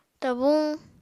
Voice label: "tabuñ", Apatani